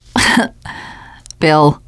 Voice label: name, teen girl; bill

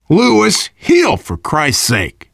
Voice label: player suggest health louis, voice, biker; Left 4 Dead 2; survivor

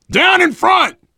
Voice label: down in front, survivor voice biker; Left 4 Dead 2

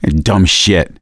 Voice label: reaction negative, voice; gambler

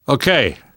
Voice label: yes, namvet, survivor voice; Left 4 Dead 2; left4dead2 dlc3

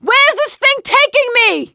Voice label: charger running with player, survivor voice; producer

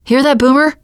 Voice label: heard boomer, teen girl; player; survivor; voice

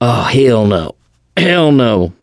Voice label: going to die, survivor voice mechanic

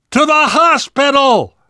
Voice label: world hospital, namvet, survivor voice

